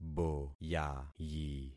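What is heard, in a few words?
"boyayi", concatenated syllables; Affixing experiment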